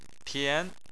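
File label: "tian", Chinese, second tone; Chinese Surnames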